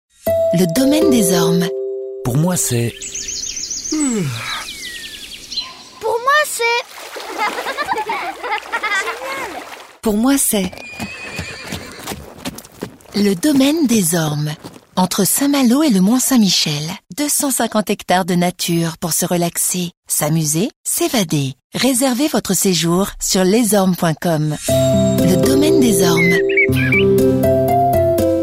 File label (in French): Posee, voix off comédienne; Domaine des ormes